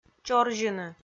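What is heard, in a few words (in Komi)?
"чорзьыны", Komi, female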